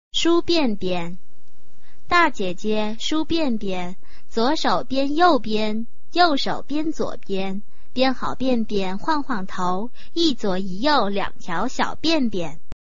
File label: chinese tongue twister